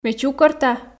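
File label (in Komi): ме чукӧрта, Komi, female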